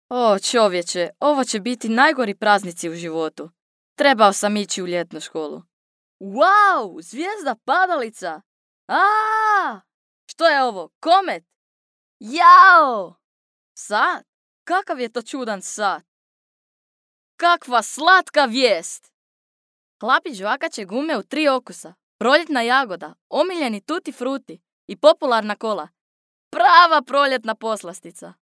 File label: croatian child male